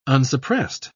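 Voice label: "unsuppressed", British English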